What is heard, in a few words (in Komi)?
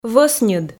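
"вӧсньыд", Komi, female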